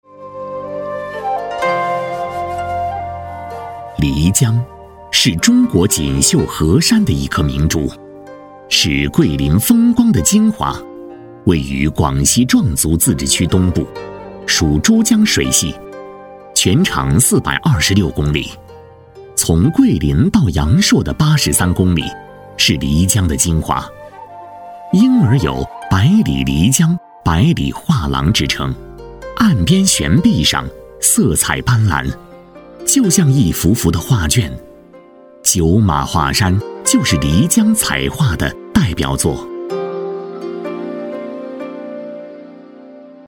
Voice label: 景区解说; 漓江

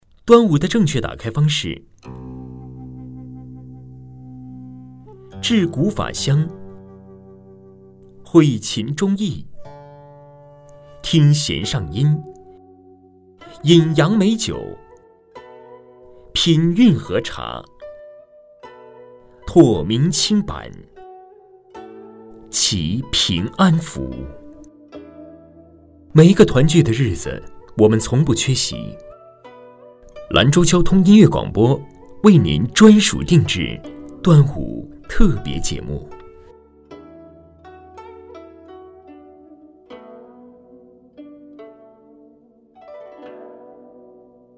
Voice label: 音乐广播端午节版头; 兰州交通音乐广播

